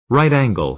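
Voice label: "right angle", American English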